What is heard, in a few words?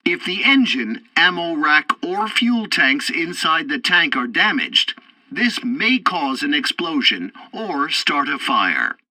tutorial tank arcade second target xray, english; War Thunder